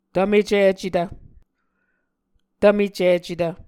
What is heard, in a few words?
"tvmecicetv", Muscogee